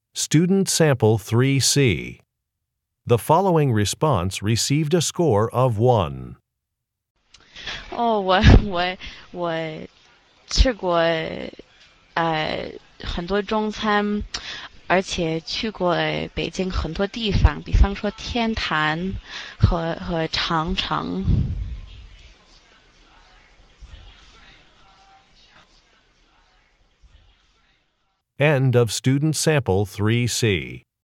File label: chinese conversation